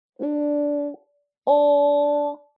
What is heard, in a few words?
"uo", Chinese